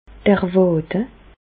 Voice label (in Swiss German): "d'r Wàde", Bas Rhin; medecine; mollet